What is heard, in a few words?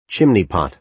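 "chimney pot", American English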